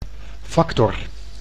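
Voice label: "factor", Dutch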